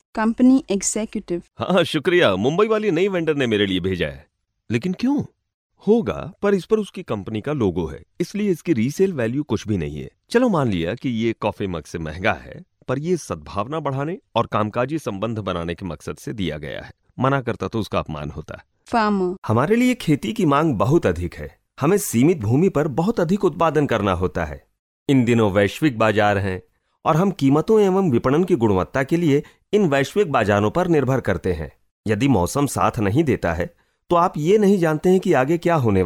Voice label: Hindi Male